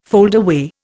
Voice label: "foldaway", Indian